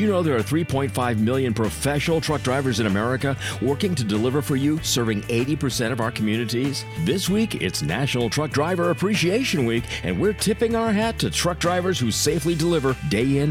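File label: trucking news with music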